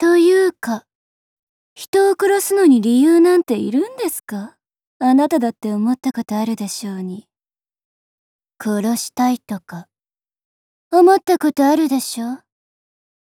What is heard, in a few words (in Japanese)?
女子B アニメ風